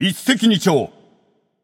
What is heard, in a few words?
alert notify kill, japanese; caster